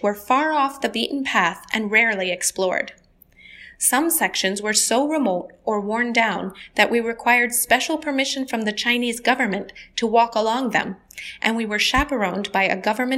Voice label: Great Wall natural speed